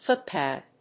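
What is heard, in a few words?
"footpad", English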